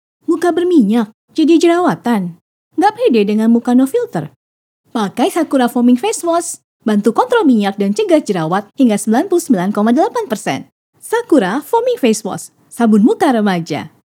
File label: casual